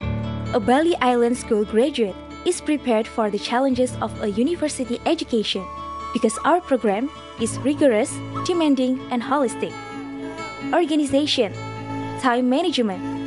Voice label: ENGLISH FORMAL, voice has been described as WARM, velvety, BELIEVABLE, confident, PROFESSIONAL